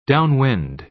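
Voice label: "downwind" American English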